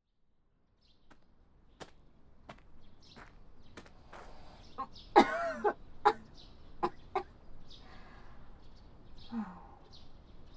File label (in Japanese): 耳舐めとフェラ; 校舎裏の体育倉庫で